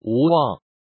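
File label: "wu wang", Mandarin Chinese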